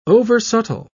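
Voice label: "oversubtle", English, British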